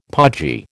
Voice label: "podgy", American